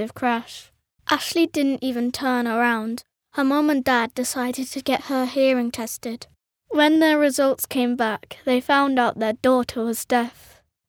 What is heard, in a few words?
girl british voice over narrative, english british